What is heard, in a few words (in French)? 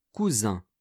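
"cousins", French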